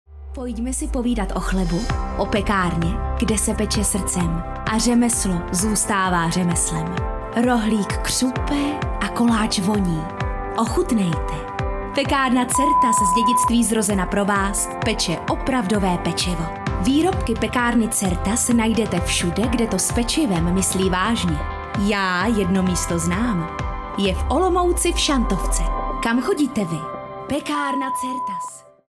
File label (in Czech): demo hlasu v reklame; pekarnaCERTAS